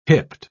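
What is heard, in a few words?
"hipped", British English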